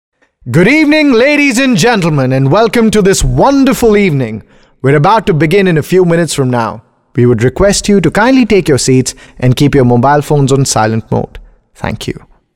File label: male voice